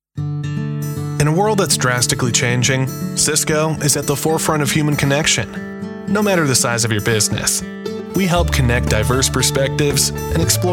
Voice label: corporate narration; Cisco